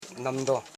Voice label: "nam don", house parts Koro

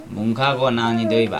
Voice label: tomorrow you will see me, Chug

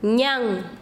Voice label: Chanka, quechua; camino

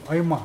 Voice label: "brother in law", Mokilese

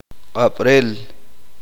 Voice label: uspanteko; estar borracho

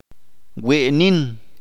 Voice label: "enough", Chalchiteko

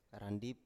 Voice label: "randip", Biak

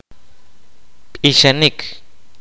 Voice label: "sing", kaqchikel

